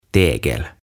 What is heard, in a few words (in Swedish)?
"Tegel" "Tiilet"